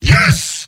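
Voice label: "yes", robot; demoman; mvm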